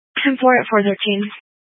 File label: Police Dispatch